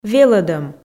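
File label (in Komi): "велӧдӧм", Komi, female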